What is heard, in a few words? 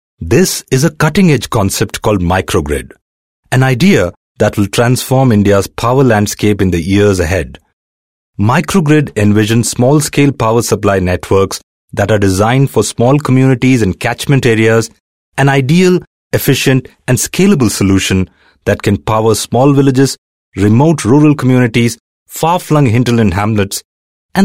English, male voice